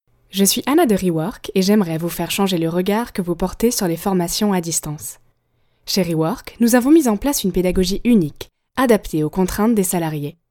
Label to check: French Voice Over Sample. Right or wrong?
Right